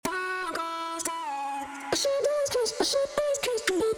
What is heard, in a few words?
vox loop compressed; Waves; 压缩听不出来？初学者的压缩听力训练来啦